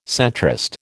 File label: "centrist", American